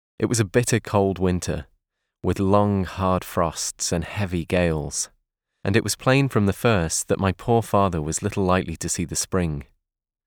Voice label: Audio Book; Treasure Island

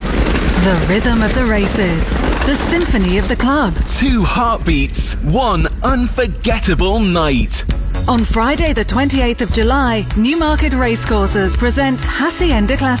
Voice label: radio station voice; Star Radio

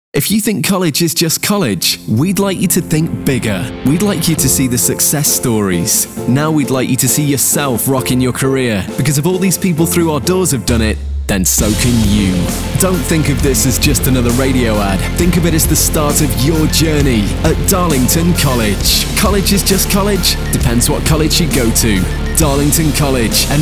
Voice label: Epic, voiceover

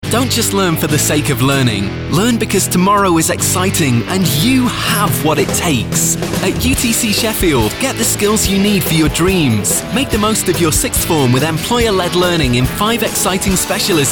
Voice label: Upbeat Commercials

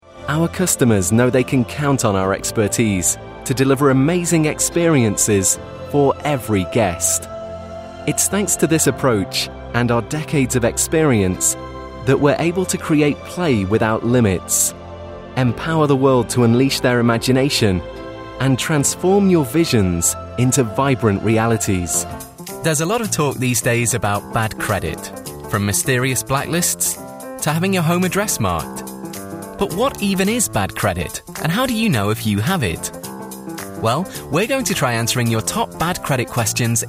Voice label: voiceover corporate showreel

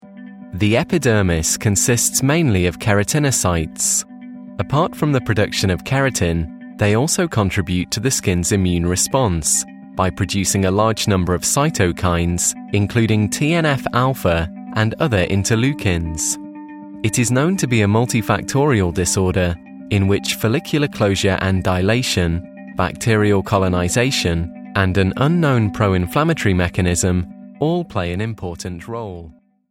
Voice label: voiceover medical technical example

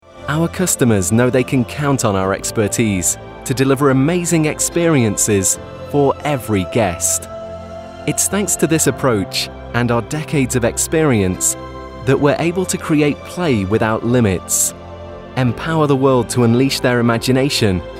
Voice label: Inspiring

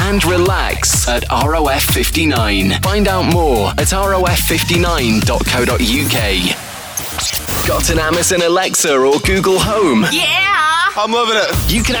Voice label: Radio Imaging Branding Voiceover; Audio Imaging & Branding; professional English voice talent with a neutral or northern UK accent

